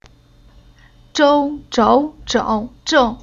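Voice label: "Zhou", Chinese syllable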